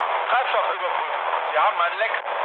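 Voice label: German tank damaged mood low, speech; War Thunder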